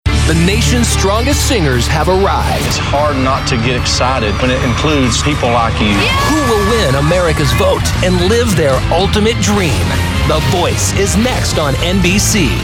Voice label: TV Promo; The Voice